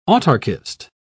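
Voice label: "autarkist", British English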